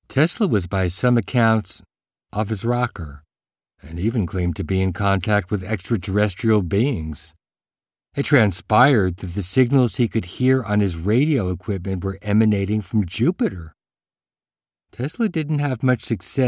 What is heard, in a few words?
audio books, American; USA